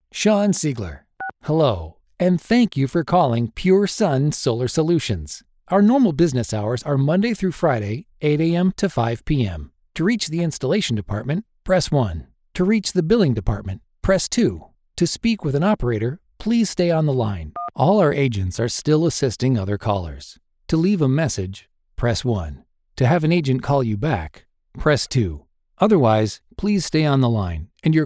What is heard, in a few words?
IVR On-Hold